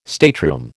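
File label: "stateroom", American English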